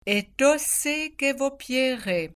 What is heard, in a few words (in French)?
prononciation patois vaudois